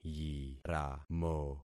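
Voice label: "yiramo", concatenated syllables; Affixing experiment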